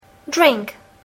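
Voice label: "drink", English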